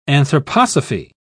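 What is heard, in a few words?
"anthroposophy", English, British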